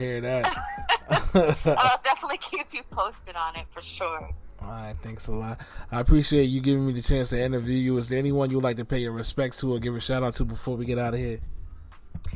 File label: Radio Shoutout